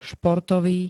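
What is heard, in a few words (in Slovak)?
"športový", Slovak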